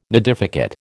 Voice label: "nidificate", American